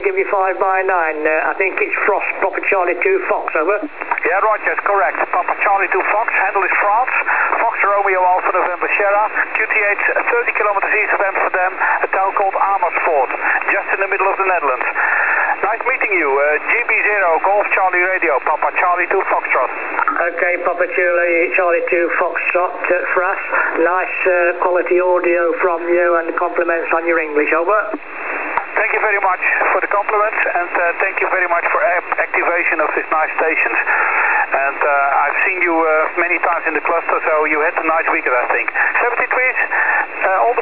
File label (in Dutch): Zendamateur NL met 10wat zendvermogen